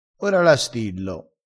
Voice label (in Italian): "stilla", crespinese